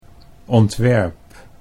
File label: "ontwerp", Dutch